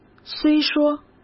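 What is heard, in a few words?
"虽说", Mandarin Chinese; hsk; chinese-flashcards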